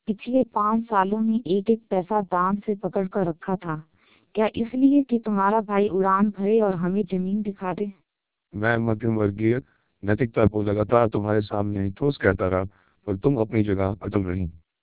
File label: 1200 bps TWELP, Hindi